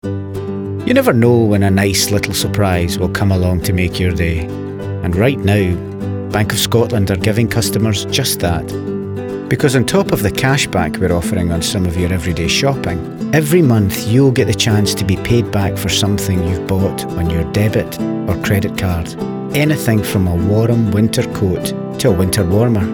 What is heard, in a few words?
male english scottish scottish accent commercial compilation; Devine Voices